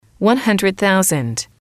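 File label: "one hundred thousand", English, female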